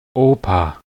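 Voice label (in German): "opa", German; klettres; tdeedu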